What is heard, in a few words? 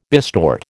"bistort", American